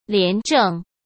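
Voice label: "廉正", Mandarin Chinese; chinese-flashcards; hsk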